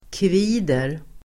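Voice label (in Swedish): "kvider", Swedish; kvida verb, whine; Uttal: [kv'i:der]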